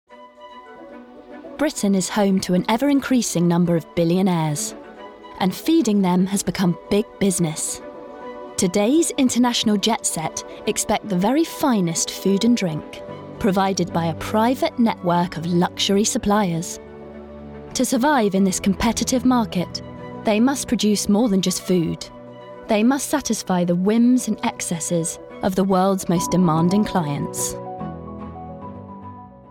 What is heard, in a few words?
Documentary; The Billionaires